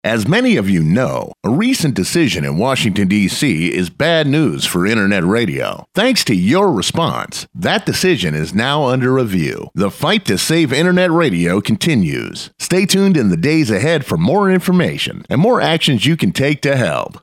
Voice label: Thank You PSA (DRY); savenetradio